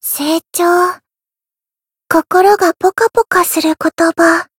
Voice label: Voice growth, jp; yukino